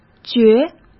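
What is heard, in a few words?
"觉", Mandarin Chinese; hsk; chinese-flashcards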